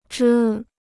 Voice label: "遮", Chinese

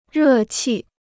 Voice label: "热气", Chinese

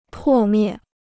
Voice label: "破灭", Chinese

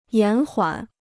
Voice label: "延缓", Chinese

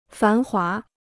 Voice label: "繁华", Chinese

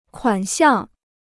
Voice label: "款项", Chinese

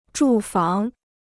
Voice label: "住房", Chinese

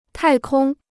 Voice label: "太空", Chinese

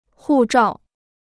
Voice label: "护照", Chinese

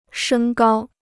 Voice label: "升高", Chinese

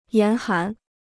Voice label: "严寒", Chinese

